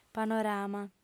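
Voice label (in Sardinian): "panorama", Sardinian, female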